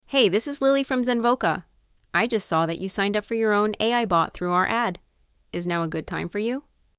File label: Lily (female & english)